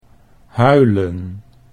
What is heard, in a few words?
"huilen", Dutch